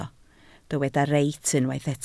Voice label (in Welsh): "reit", Welsh